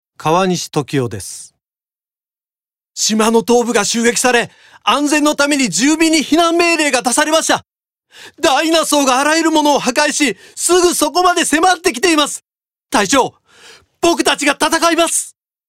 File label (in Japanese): 青年